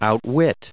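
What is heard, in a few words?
"outwit", US English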